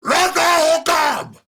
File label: Attack Grineer Ghoul Sargeant, en